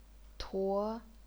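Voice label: "tuo", chinese pinyin, second tone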